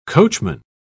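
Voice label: "coachman", English, British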